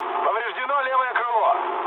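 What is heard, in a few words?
Russian left wing mood low, speech; War Thunder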